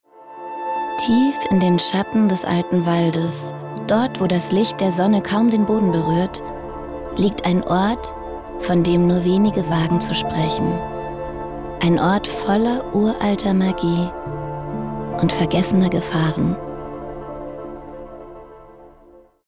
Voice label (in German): Sprecherin, Fantasy, Erzählerin; Demo; Uralte Magie